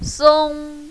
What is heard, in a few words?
"song1", mandarin, female